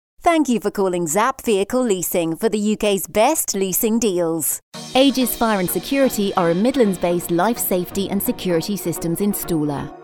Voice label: IVR and On Hold